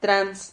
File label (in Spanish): "trans", Silabas